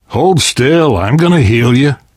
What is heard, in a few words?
heal other, left4dead2 survivor voice namvet; source engine